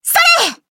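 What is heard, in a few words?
Voice attack, Japanese; violet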